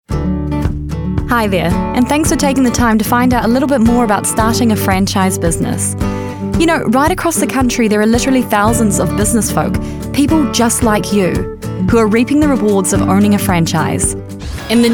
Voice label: Voice Actor; Big Mouth Voices